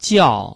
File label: "jiao4", Chinese